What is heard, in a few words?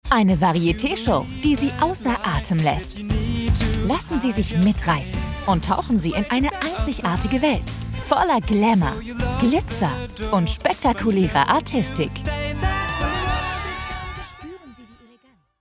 Trailer